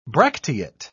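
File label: "bracteate", English, British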